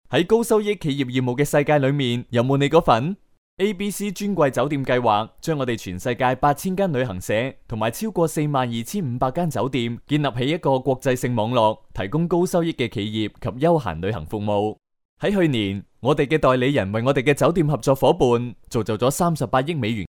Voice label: locutor chino cantones chinese cantonese voiceover; Chinese cantonese voice talent